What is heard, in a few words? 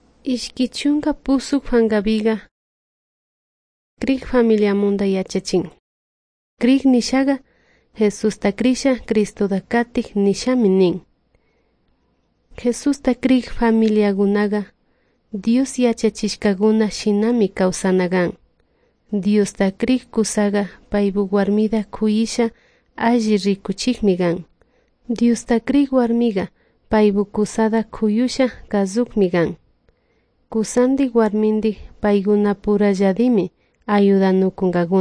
good news quichua de salasaca